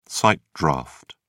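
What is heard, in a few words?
"sight draft", British English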